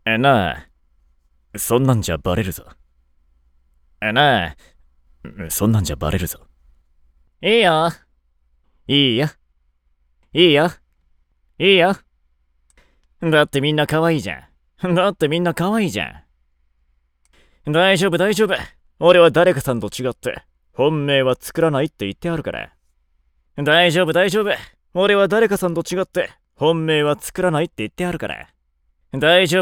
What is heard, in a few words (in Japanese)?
キャラクターボイスサンプル